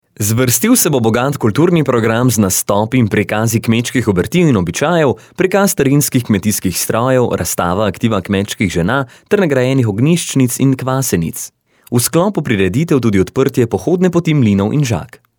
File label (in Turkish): Slovence Erkek, slovence seslendirme; erkek sesler